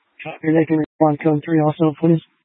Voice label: Fire Dispatch; C- Chandler